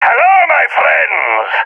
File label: attacking, balkan; vo; balkan epic